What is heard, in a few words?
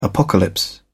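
"apocalypse", British English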